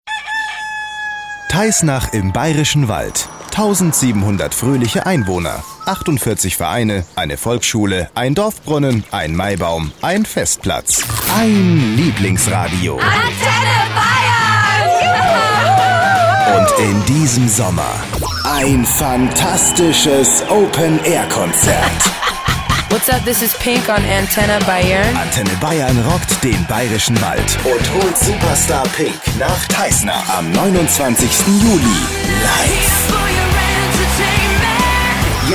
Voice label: Promo; Antenne Bayern Pink

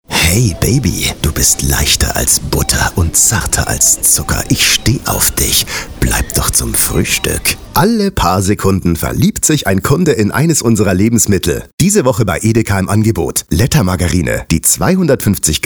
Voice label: Sprecher Dick aufgetragen ohne Abklapper ohne Wiederholung

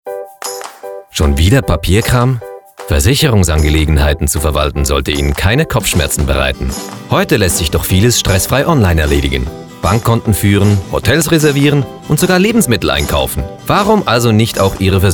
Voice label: Imagefilm; SANITAS

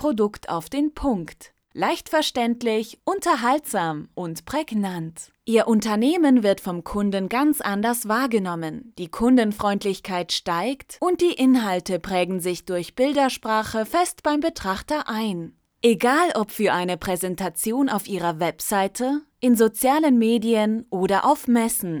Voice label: Erklärungsvideo

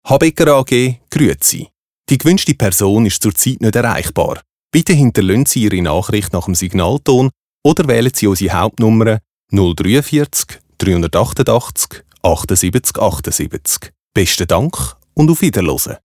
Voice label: Anrufbeantworter in Zuerichdeutsch

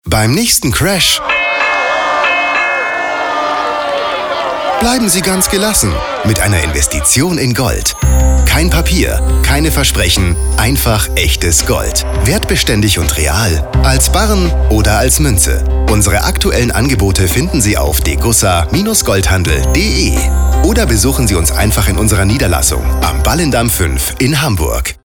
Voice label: Spot; Degussa Borse

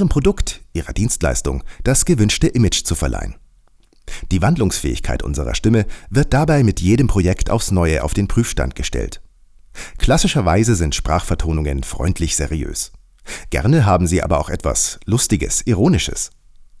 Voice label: Über das Sprechen ruhig trocken

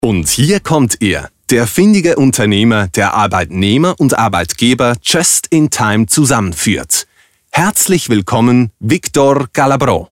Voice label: Nominierten Ansage eines Unternehmerpreises

